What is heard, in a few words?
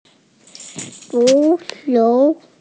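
"zu2qiu2", Mandarin Chinese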